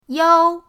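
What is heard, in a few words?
"yao", Mandarin pinyin, first tone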